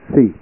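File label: "si", Japanese; Learn Kana 2.01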